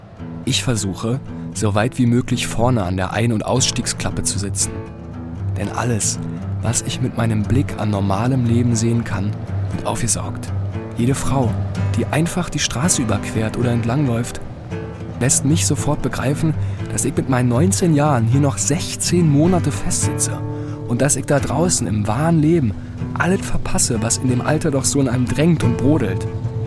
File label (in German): Monolog